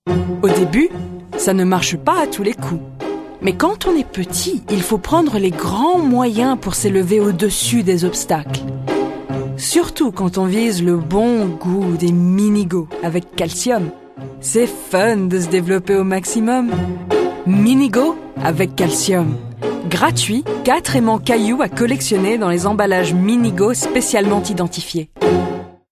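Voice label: French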